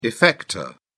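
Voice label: "effector", British English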